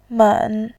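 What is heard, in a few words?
"men", Mandarin, tone 3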